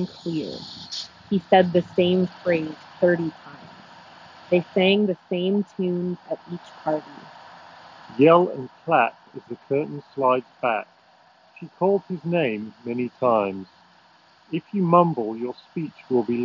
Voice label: Beats Studio3 Wireless Street microphone